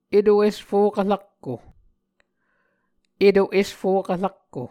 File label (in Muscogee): "eto esfokv rakko", Muscogee; Mvskoke Words with Voicing Consonants; Martin, Jack B., and Margaret McKane Mauldin. 2023. A Dictionary of Creek/Muskogee